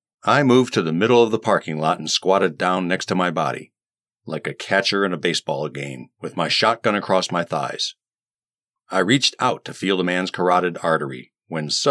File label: 1st M Memoir